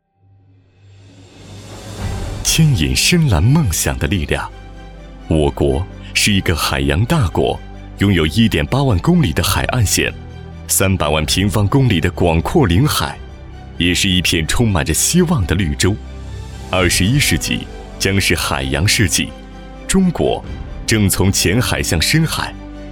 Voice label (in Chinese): 男 宣传片; 牵引深蓝梦想